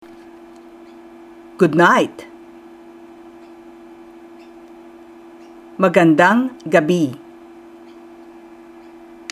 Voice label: Tagalog Good night; Greetings

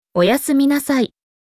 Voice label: Japanese Good night; Greetings

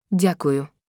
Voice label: Ukrainian Thank you; Greetings

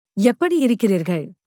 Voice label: Tamil How are you; Greetings

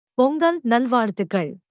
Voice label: Tamil Happy Pongal; Greetings

